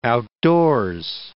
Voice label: "outdoors", English